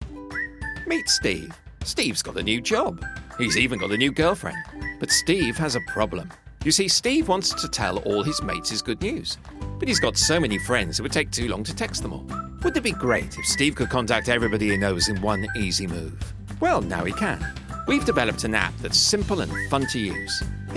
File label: Explainer Video